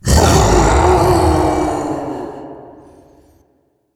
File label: hurt; brainrot; italian brainrot 3; nihilanth